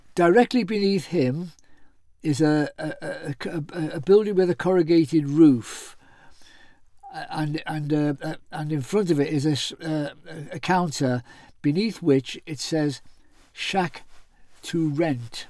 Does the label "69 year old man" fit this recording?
Yes